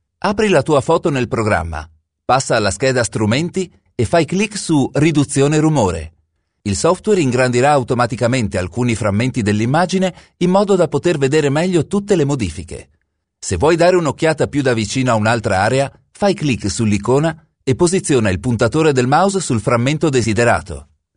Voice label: Italian

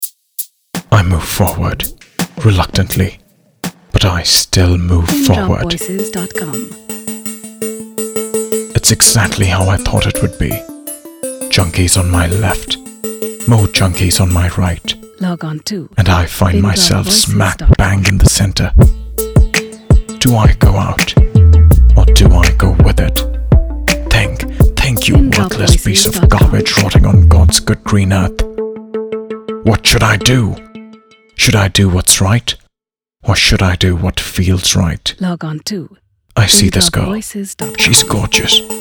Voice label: English

